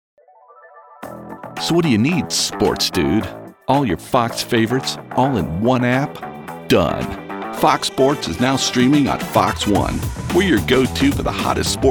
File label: Corporate Narration